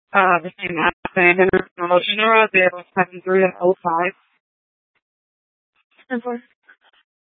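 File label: Tempe Police Department, scanner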